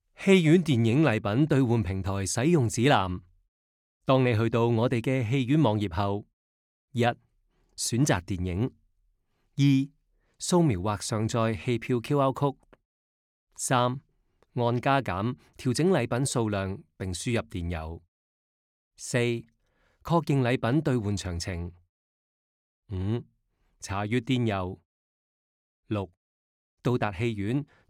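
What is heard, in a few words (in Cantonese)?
Cantonese, General; GoLocalise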